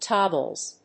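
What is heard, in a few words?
"Toggles", English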